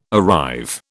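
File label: "arrive", British English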